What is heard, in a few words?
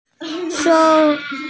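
"sou1", Mandarin Chinese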